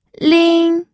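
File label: "ling", first tone, pinyin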